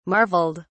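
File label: "marveled", British English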